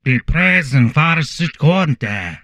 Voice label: Humanoid male; Stellaris